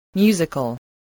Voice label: "musical", voice